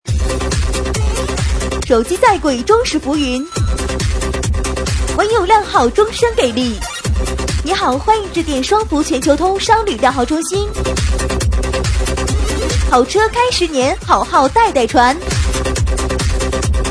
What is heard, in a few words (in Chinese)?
彩铃，喊麦; 手机再贵终是浮云